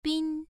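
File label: "bin" Mandarin, first tone, pronunciation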